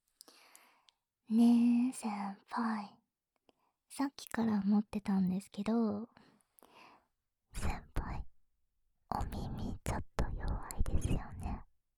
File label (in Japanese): 耳元ささやきマッサージ, ASMR; SE無し